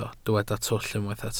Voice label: "twll", Welsh